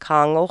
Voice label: aleut

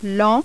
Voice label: french

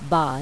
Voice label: gujarati

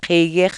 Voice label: aleut

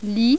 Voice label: french

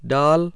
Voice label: hindi, vowels

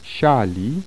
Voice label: polish, vowels

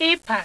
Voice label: igbo, vowels

